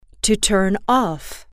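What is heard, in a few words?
"to turn off", English, female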